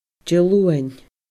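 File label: "Di Luain", Scottish Gaelic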